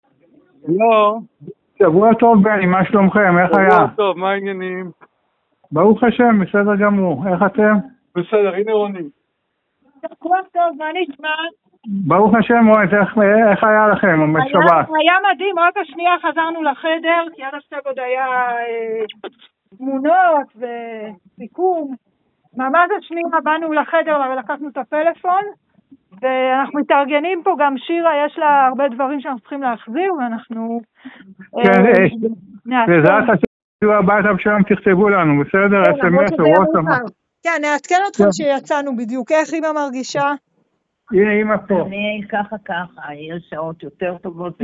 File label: Call recording